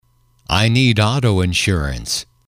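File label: "I need auto insurance", English, male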